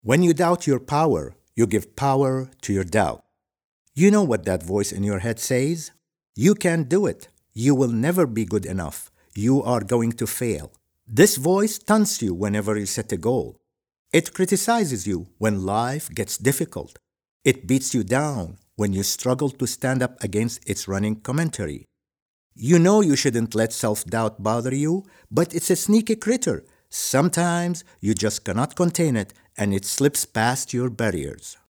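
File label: Arabic-English, male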